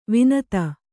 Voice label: "ವನಧಿ", kannada

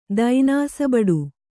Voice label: "ದೈನಾಸಬಡು", Kannada

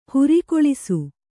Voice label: "ಹೊರೆಗೊಳಿಸು", Kannada

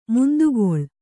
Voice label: "ಮಂದಗಳ್ಳಿ", Kannada

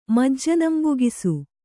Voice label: "ಮಜ್ಜನಂಬೊಗಿಸು", kannada

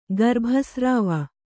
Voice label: "ಗರ್ಭಸ್ರಾವ", Kannada

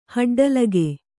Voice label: "ಹಡ್ಡಲಿಗೆ", Kannada

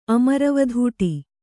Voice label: "ಅಮರವಧೂಟಿ", Kannada; amaravadhūṭi; noun

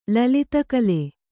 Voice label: "ಲಲಿತಕಲೆ", Kannada

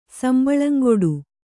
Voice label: "ಸಂಬಳಂಗೊಡು", kannada

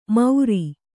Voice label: "ಮೌರಿ", Kannada

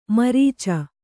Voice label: "ಮುರಿಚು", Kannada

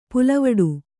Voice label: "ಪುಲ್ವಟ್ಟೆ", Kannada

